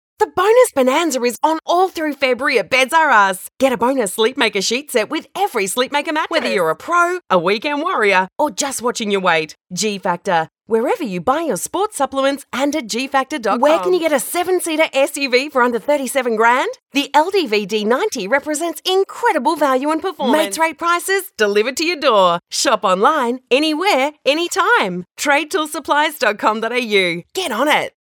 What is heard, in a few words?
Hard Sell